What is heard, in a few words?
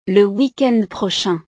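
"weekend prochain", French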